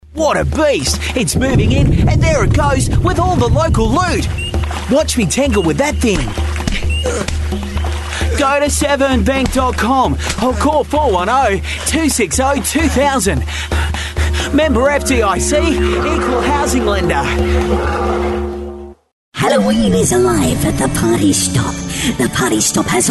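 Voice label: English Australian, male, voice over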